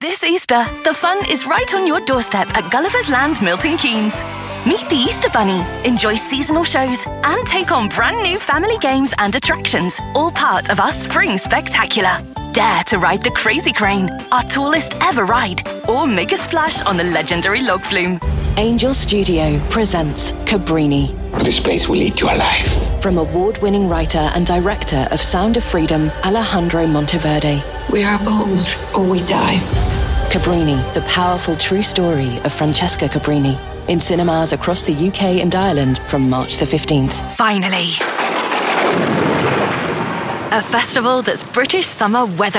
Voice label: radio